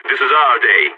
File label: on a roll brag, balkan; vo